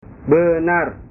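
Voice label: "benar", Indonesian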